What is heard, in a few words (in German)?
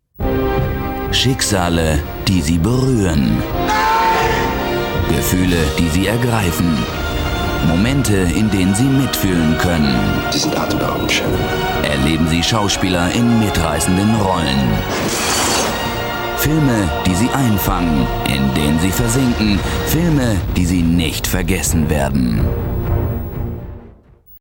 Episch; RTL Stationpromo